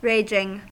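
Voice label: "raging", US English; pronunciations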